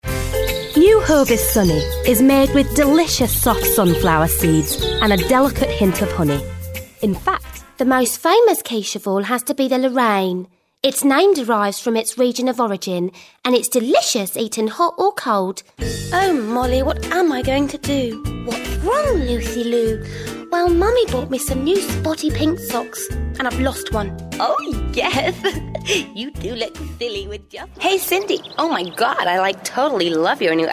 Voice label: character